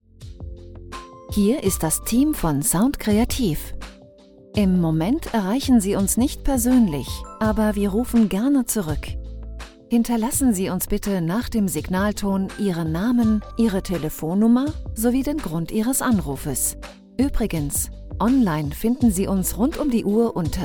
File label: german ivr